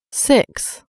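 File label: "six", English; numeri in inglese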